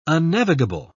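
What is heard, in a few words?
"unnavigable", British English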